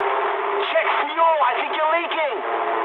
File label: eng tank damaged mood high, speech eng